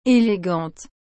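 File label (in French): "elegante", French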